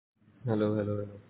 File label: Voice Note